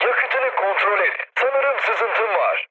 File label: tank damaged, mood med, speech tur; War Thunder